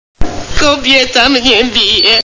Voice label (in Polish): kobieta; Zombie